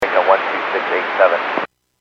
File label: Airports 121.5 Emergency, Radio